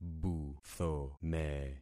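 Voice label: "buthome", concatenated syllables; Affixing experiment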